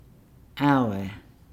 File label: "hour", Nukuoro